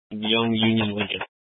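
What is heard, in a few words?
Sheriff Dispatch, Scanner audio recording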